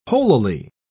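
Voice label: "holily", British English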